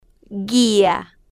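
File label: "guia", Spanish, slow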